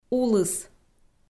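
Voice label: "улыс", Komi, female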